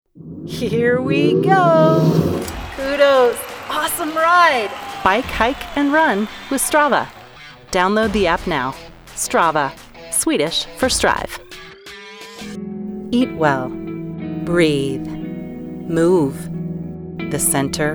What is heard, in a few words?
Commercial